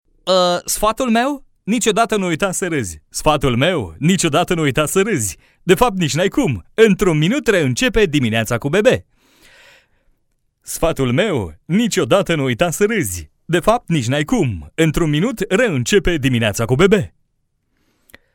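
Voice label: romanian male commercial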